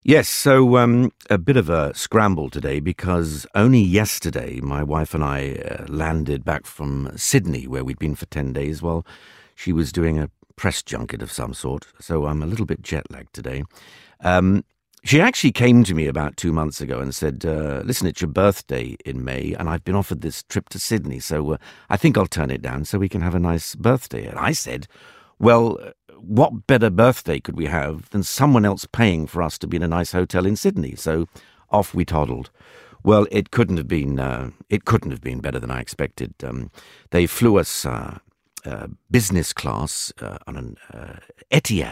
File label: Natural Read